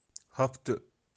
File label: "haftu" "hafti" week kashmiri